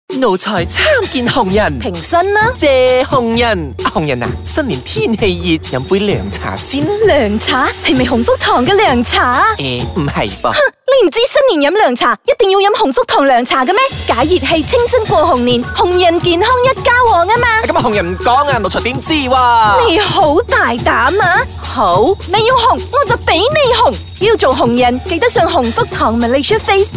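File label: Chinese; TONGEE; HUNG FOOK TONG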